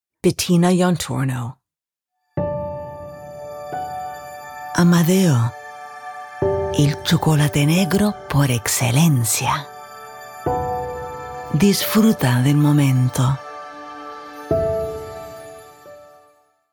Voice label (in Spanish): Commercial Spanish Chocolate